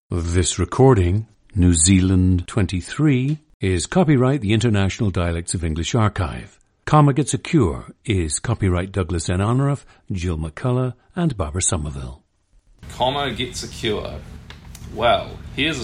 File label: New Zealand